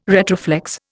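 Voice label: "retroflex", Indian English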